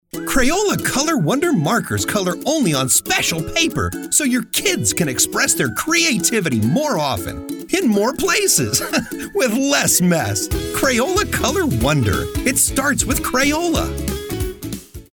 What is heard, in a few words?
Commercial; Crayola